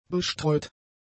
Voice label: "bestreut", German; adjectives

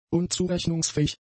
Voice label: "unzurechnungsfähig", German; adjectives

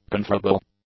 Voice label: "conferrable", English; adjectives

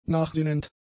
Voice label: "nachsinnend", German; adjectives

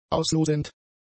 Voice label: "auslosend", German; adjectives

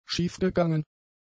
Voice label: "schiefgegangen", German; adjectives

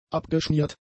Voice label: "abgeschmiert", German; adjectives

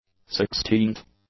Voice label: "sixteenth", English; adjectives

